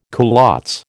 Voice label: "culottes", American